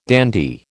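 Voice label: "dandy", American English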